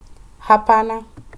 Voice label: Swahili No Hapana

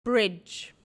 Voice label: "bridge", English

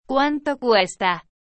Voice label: "Cuanto Cuesta" How Much, Argentina

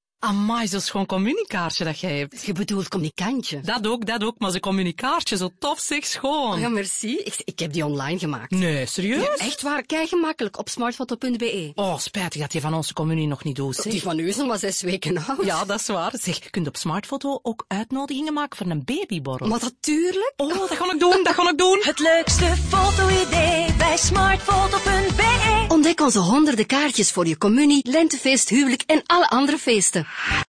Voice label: radiospot; smartphoto; communie

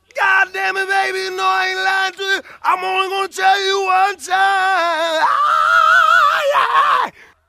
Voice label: goddammit baby mumble tiiiime

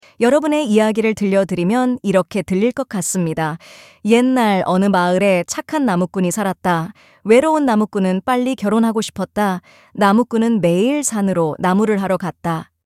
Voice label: Premium Korean Female

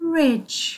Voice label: "ridge", English

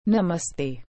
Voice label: "Namaste" Hindi

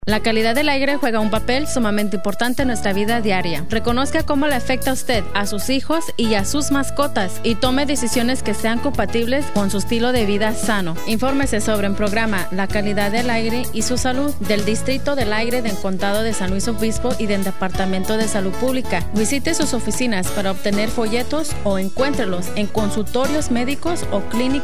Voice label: health dept span

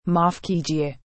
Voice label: "Maaf Keejiye" Excuse Me

